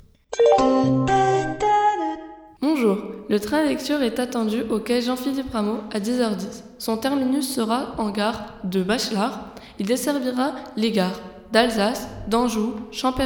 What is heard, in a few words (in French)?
ANNONCE SNCF DEPART